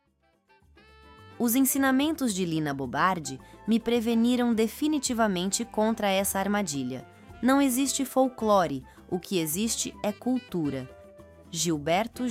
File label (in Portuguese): narracao; citacao gil fotos obras